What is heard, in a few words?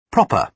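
"proper", British English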